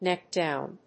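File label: "neck-down", English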